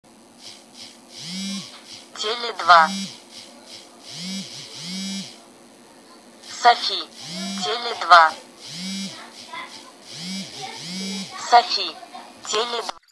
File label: whisper; android